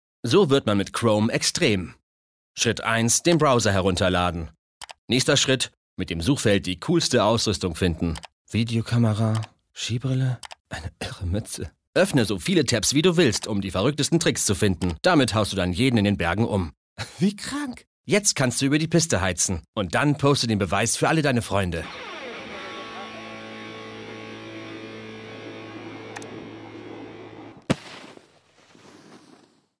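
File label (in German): werbespot, deutsch männer; sprecher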